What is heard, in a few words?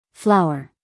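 "flower", American English, female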